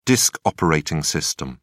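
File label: "disk operating system", English, British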